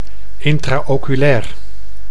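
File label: "intra-oculair", Dutch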